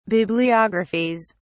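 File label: "bibliographies", British English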